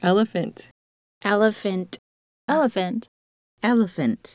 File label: Adult Voices